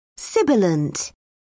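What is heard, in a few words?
"sibilant", British English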